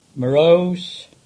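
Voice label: "Merrose", English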